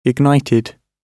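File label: "ignited", British English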